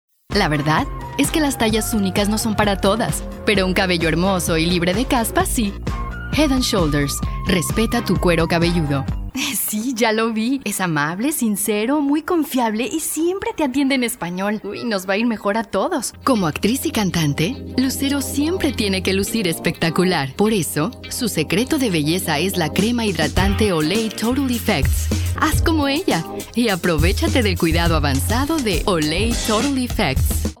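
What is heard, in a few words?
Spanish Latin America female sales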